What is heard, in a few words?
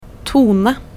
tone, norwegian